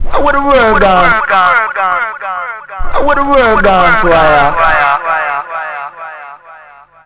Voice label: I Wanna, Vox FX; Total Drum&Bass